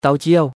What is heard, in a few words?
Lao "ເຕົ້າຈ້ຽວ"